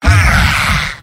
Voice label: demoman m negative vocalization; tf2; garrysmod; mvm

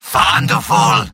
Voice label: medic positive vocalization; tf2; mvm

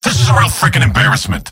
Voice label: scout jeers; mvm

